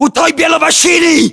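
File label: landmark near car white, battlechatter, Russian; localized english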